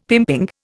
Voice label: "pimping", Indian; Dictionary